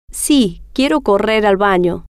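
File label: si Quiero Correr Bano, Spanish, female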